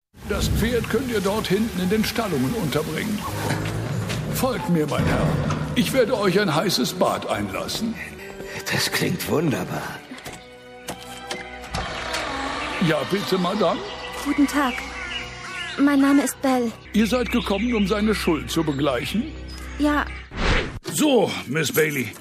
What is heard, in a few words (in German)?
Hoerspiel Collage div Rollen; Hörproben